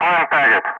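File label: "target", English; Ka-50; Wingman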